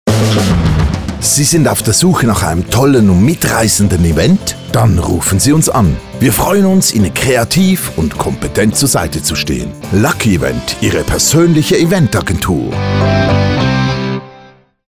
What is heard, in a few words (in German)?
Sprecher Werbung